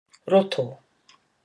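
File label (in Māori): "roto", Māori word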